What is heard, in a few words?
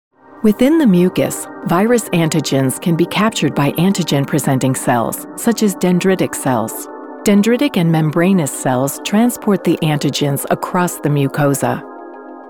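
us medical narration, American, female; engelsk-us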